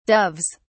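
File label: "doves", British English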